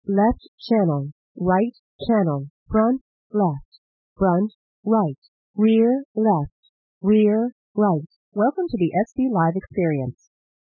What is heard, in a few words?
female white noise